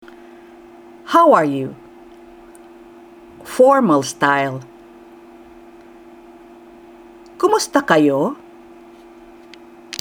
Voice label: Tagalog How are you formal; Greetings